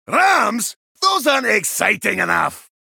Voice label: Male Dwarf